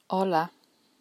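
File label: "Ola", Hello, Galician; Bos días (Good morning)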